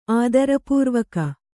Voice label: "ಆದರಪೂರ್ವಕ", Kannada